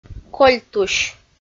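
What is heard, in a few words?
"кольтусь" Komi, female; audio dict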